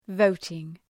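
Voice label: "voting", English word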